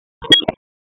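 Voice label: EMS Dispatch, p25; wake p25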